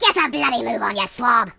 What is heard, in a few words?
Hurry, Pirate voice; hedgewars